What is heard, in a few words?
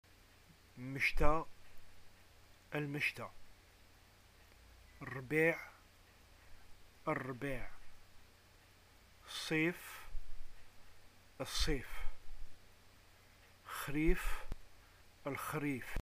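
seasons, arabic, Algerian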